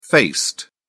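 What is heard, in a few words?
"faced", British English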